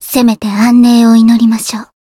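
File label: win, Japanese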